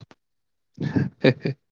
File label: voice message